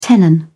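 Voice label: "tenon", British English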